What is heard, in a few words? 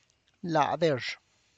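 tlacochahuaya, Zapotec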